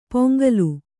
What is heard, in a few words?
"ಪೊಂಗಲು", Kannada